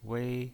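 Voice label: "wei", pinyin voice, first tone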